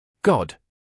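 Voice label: "god", British English, male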